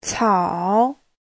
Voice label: "cao3", Chinese; 草; (danh từ) cỏ; Giáo trình chuẩn HSK3